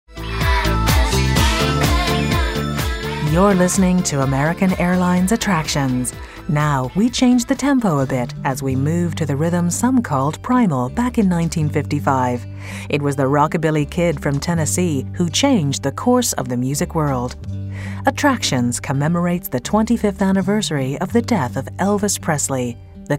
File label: In flight Presentation; American Airlines